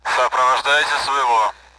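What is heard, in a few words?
Russian, AWACS Messages contact is friendly; Speech; DCS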